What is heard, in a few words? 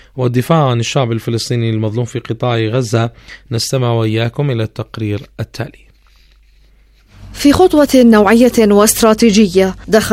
Arabic